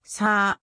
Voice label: "さ", 日本語; 基礎日語五十音聽力

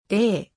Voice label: "で", 日本語; 基礎日語五十音聽力

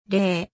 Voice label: "れ", 日本語; 基礎日語五十音聽力

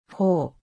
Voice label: "ほ", 日本語; 基礎日語五十音聽力

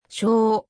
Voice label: "しょ", 日本語; 基礎日語五十音聽力

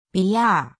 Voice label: "びゃ", 日本語; 基礎日語五十音聽力

